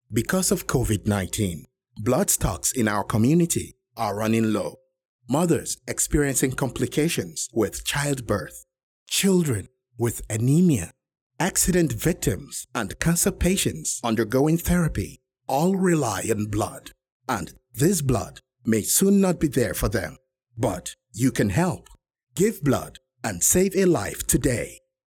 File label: Medium English Save a life today